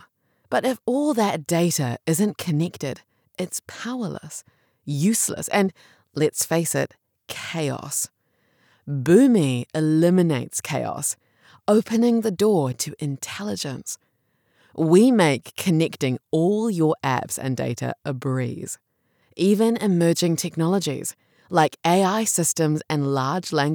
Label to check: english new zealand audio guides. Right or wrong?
Right